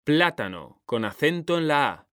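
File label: "plátano", Spanish, male; mekanta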